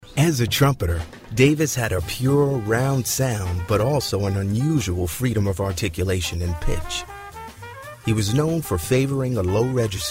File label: voice over narration